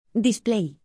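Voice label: "display", English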